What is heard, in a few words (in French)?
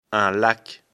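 "lac", French; afrikalan; lecture fr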